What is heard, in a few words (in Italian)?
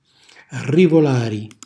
"rrivolari", Calabrese; buttar via, lanciare; cucina calabrese